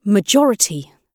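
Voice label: "majority", British English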